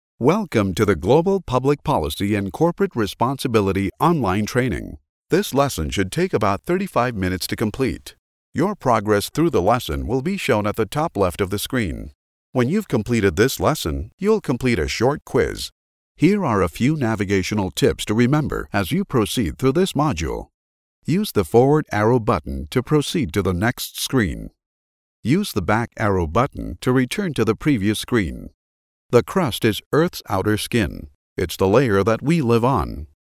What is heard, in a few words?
american male; dictor